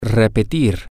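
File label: to Repeat, Spanish, male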